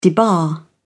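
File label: "debar", British English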